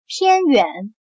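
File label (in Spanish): "偏远", chino mandarin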